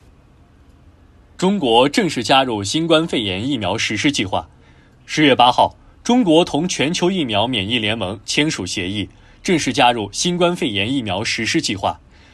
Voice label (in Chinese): 新闻试音